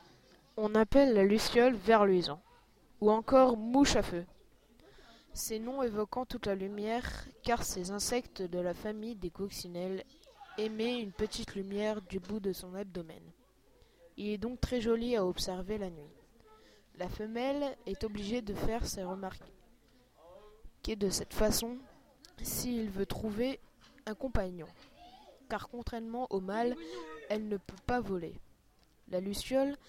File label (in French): description en francais